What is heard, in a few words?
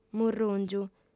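"murrùngiu", Sardinian, female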